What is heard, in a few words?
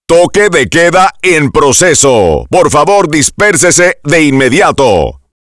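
Curfew in Place, Spanish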